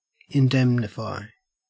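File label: "indemnify", Australian English; pronunciations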